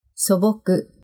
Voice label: "soboku", Japanese; simplicity naivete